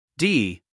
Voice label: d, us guy

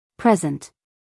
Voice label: "present", US female

